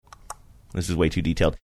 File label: clicking tongue saying this is way too detailed